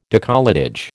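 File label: "décolletage", American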